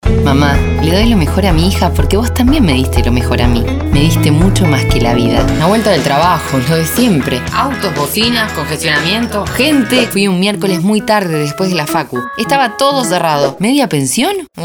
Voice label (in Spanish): locucion demo acting